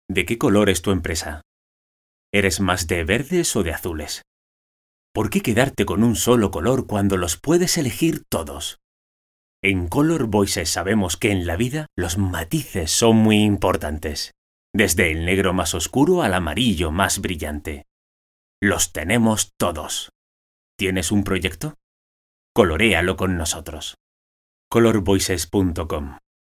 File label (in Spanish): hombre corporativo